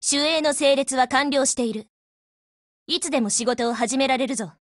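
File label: HELLO Japanese, Voice; GF Alarm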